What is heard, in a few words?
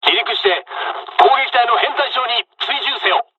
dialogs japanese; takeoff; War Thunder